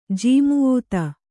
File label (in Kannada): "ಜಾಮಾತ", Kannada